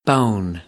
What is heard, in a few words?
"bone", American; English; oʊ - əʊ